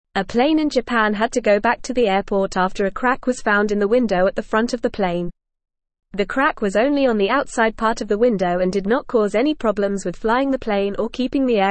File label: English Lower Intermediate FAST Reading; Plane in Japan goes back because of cracked window; English Newsroom